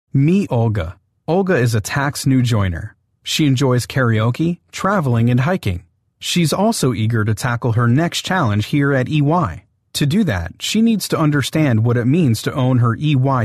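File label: US English, male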